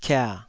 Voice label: "kia3", taiwanese syllables

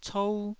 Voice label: "cou1", Taiwanese

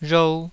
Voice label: "rhou2", taiwanese

